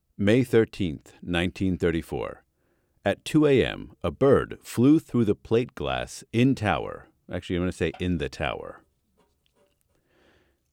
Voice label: Character reads logbook lighthousekeeper; Interactive Lighthouses; LIGHTHOUSE KEEPER VO